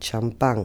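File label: "champan", Spanish, Santiago de Compostela; Galicien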